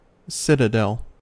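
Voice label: "citadel", English, US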